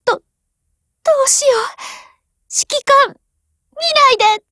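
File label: BREAK Japanese; GF Alarm; UMP9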